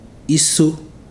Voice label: "5 yams", Ibibio

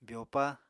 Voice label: "byopa", Apatani

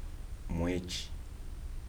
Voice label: "month", Kibembe

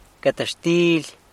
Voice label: "guet xtily", San Lucas Quiavini; bread

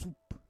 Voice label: "sup", Roon

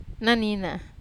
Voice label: "nina", Wamesa

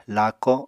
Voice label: "laako7", Apatani

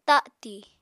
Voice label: "tati", apatani

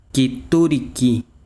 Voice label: besiro rojo, chiquitano

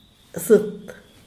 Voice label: "zet" hueso; Díaz Ordaz

